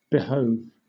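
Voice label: "behove", British English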